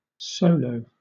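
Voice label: "solo", British English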